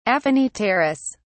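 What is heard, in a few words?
"aphanipterous", British English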